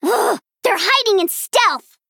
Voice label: Female Gnome ERROR